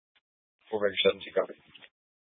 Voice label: Mesa PD Dispatch; Fiesta